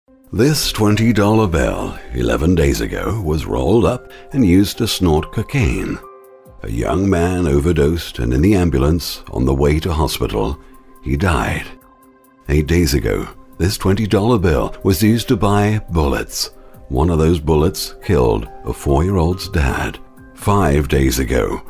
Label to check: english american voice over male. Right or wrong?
Wrong